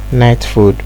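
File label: "night food", Nigerian Pidgin, male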